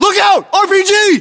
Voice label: threat rpg, US